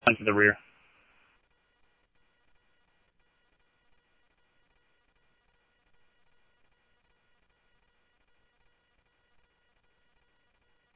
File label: Scottsdale Police, scanner; SCTSDLE PD - PD2; ThompsonPeakHigh